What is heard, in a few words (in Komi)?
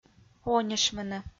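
"онишмыны", Komi, female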